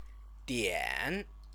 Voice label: "dian", Chinese